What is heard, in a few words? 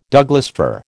"Douglas fir", American